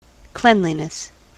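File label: "cleanliness", US English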